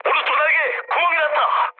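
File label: Korean right wing mood med, speech; War Thunder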